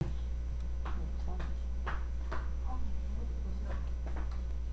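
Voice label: "lick", spoken word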